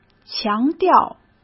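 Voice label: "强调", Mandarin Chinese; chinese-flashcards; hsk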